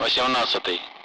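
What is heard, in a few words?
Speech, Russian, 8th; Allied Flight; DCS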